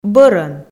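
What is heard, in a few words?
"бӧрӧн", Komi, female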